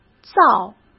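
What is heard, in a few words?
"造", Mandarin Chinese; chinese flashcards; hsk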